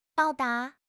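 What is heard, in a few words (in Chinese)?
"报答", Chinese; HSK6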